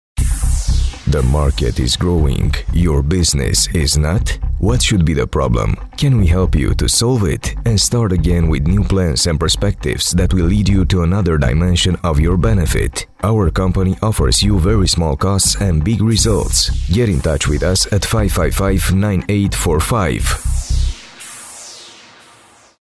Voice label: M engleza